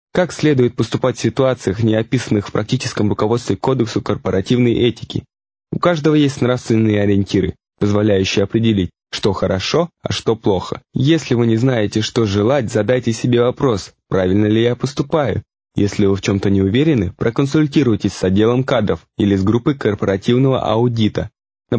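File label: Russian, male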